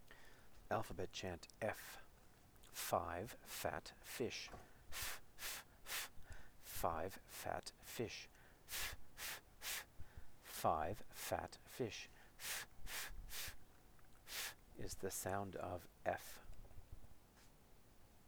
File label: alphabet chant 'f' slow